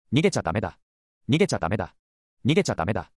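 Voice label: anime, Japanese, Hilarious Anime Quotes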